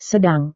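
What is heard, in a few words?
"sedang", Indonesian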